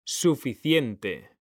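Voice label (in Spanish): "suficiente", Spanish; mekanta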